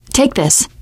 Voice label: alert give item, teen girl; Left 4 Dead 2